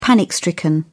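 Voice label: "panic-stricken", British English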